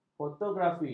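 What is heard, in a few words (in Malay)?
"fotografi", Malay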